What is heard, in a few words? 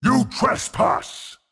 Mid Fight Taunts; Voll; NPC; Voll Combat